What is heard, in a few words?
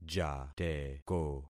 "jateko", concat syllables; Affixing experiment